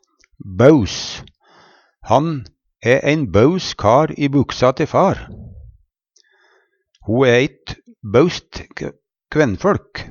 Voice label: "baus", Numedalsmål; Han æ ein baus kar i buksa te far. Ho æ eit baust kvennføLk.; Adjektiv

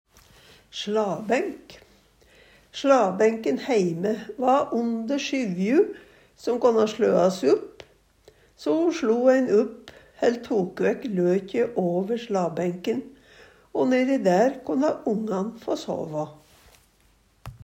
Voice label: "slabenk", Numedalsmål

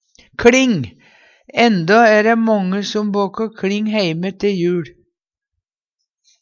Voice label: kLing, Numedalsmål; Endå æ dæ månge som båkå kLing heime te jul, ja, te påske mæ.

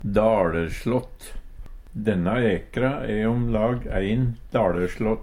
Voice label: "daLerslått", Numedalsmål

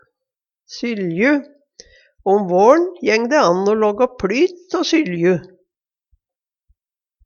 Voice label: syLju, Numedalsmål

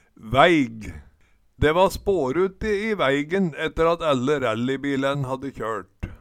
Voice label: vaeig, Numedalsmål

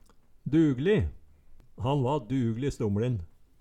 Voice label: "dugli", Numedalsmål

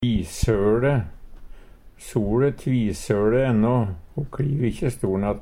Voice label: "tvisøLe", Numedalsmål; sole kjem att to gonger; SoLe tvisøLe enno, ho kliv ikkje Stornatten.

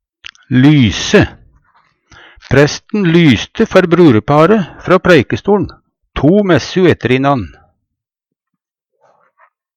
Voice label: lyse, Numedalsmål

